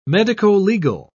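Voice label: "medicolegal", English, British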